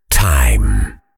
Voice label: time, Voiceover Pack Fighter; Kenney's Sound Pack

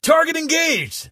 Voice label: target engaged, Male; war; Kenney's Sound Pack; Voiceover Pack

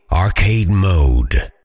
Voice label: "arcade mode", Voiceover Pack Fighter; Kenney's Sound Pack

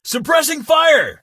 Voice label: suppressing fire, Male; war; Kenney's Sound Pack; Voiceover Pack

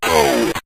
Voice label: "hold", Synth Voice; Synth Voice 2; Kenney's Sound Pack

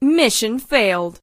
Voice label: "mission failed", female; Kenney's Sound Pack; Voiceover Pack

